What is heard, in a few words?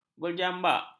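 "berjambak", Malay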